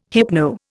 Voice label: "hypno", Indian